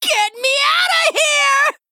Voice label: Male Gnome